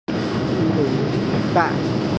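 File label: "wei ba", Mandarin Chinese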